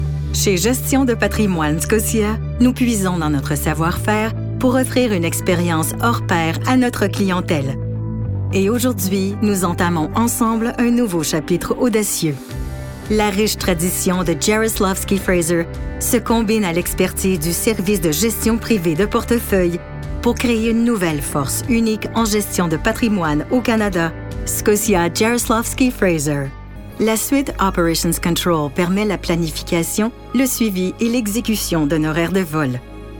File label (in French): Démo corporatif français